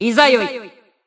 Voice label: System Voice; BBCP; Bullet